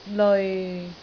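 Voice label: "leoi6", Cantonese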